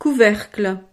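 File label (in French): "couvercle", French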